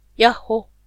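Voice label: "ヤッホ" Japanese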